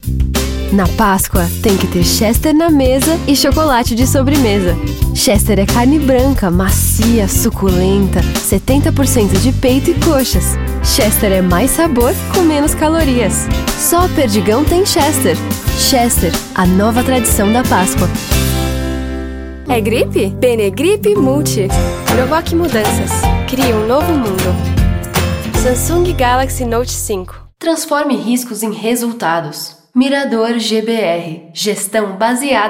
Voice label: Adulto

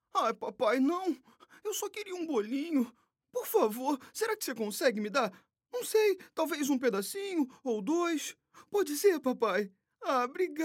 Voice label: Caricata

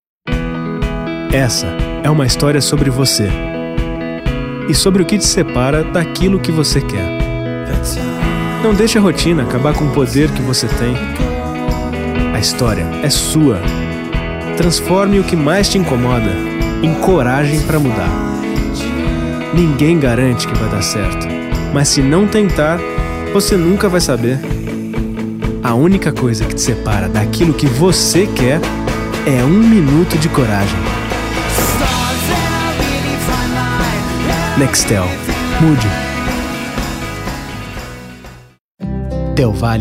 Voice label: Motivacional Inspiracional

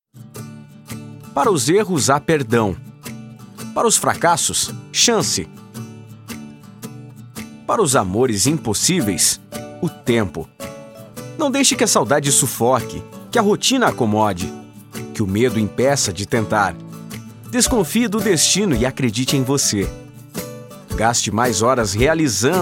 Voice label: Conversado

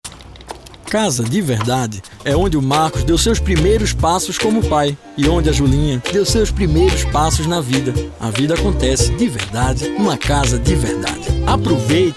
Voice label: Varejo Tranquilo; Leroy Merlim

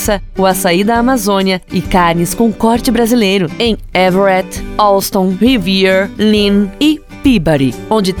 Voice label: spot meat market; VITÓRIA MEAT MARKET

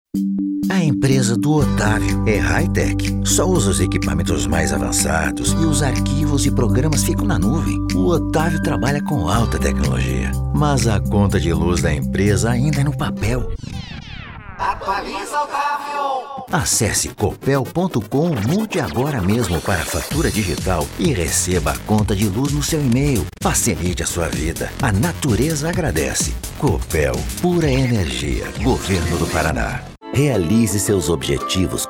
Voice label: Jovem

